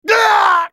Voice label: dying, en US; Talon; character voices english